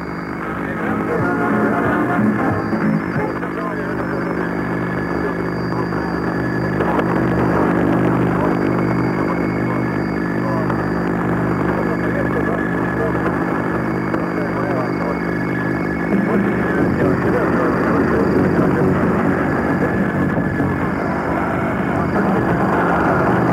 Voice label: radio limerick 2250khz harmonic; 1985; luimni; 2248bst; rec scotland; irish

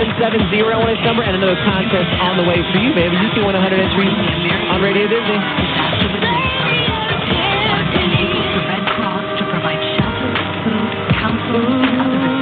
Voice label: 1640 disney, mw dxing; wksh; sussex wi

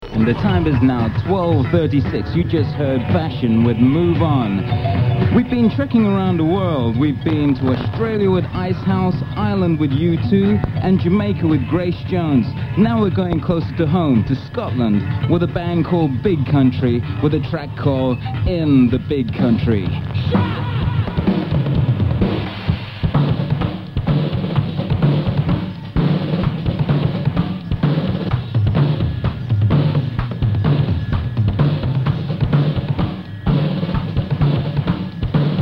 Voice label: caroline airchecks about small boats; 963 caroline 2

